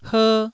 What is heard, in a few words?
"her1", taiwanese